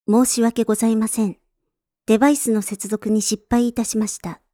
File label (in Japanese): 申し訳ございません デバイスの接続に失敗致しました, システムボイス; Windows等向けシステムボイス